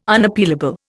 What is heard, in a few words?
"Unappealable", Indian